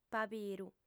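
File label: "pabiru" Sardinian, female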